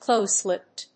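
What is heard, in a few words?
"close-lipped", English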